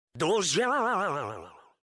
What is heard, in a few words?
vibrato; dojyaannn